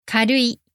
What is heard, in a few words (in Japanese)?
"軽かるい", Japanese